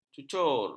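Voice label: "cucur", Malay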